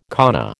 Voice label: "kana", American